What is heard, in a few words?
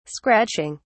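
"scratching", British English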